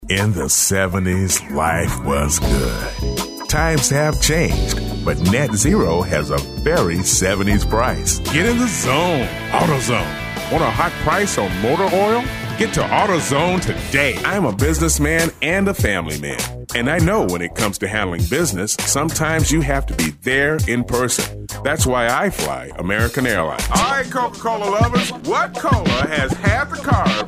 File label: onhold message